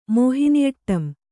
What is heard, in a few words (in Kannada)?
"ಮೋಹಿನಿಅಟ್ಟಂ", Kannada